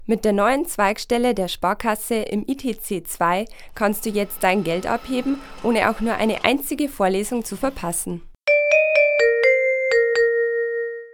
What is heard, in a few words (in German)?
Werbung Reminder; Sparkasse